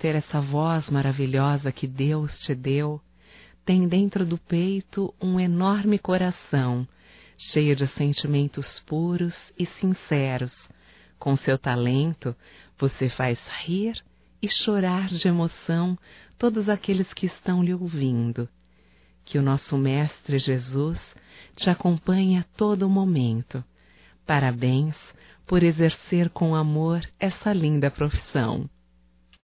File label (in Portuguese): HOMENAGEM AO LOCUTOR (F), Só Voz; Telemensagens; HOMENAGENS